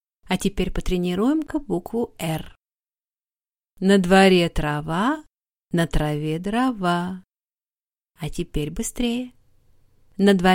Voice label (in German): Russisch Sprachkurs